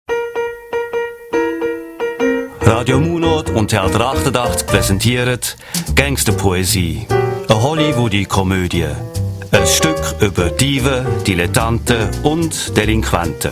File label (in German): werbespot radio; munot